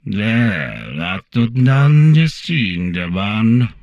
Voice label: humanoid portrait male; Stellaris; humanoids

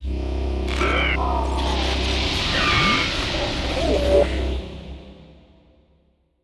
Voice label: radio chatter; Stellaris

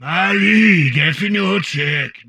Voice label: humanoid portrait male; Stellaris; humanoids

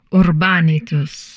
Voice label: humanoid portrait female; Stellaris; humanoids

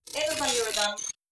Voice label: robot human female greetings; Stellaris; synthetic dawn

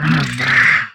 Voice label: humanoid male; Stellaris; humanoids

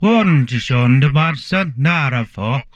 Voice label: humanoid male; Stellaris; humanoids

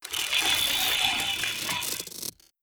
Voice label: robot plantoid greetings; Stellaris; synthetic dawn

